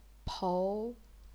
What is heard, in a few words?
"pou2", chinese pinyin